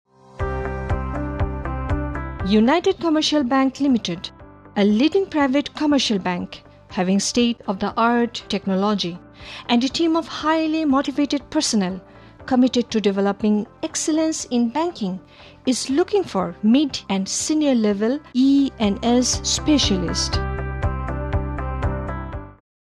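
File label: Bengali female English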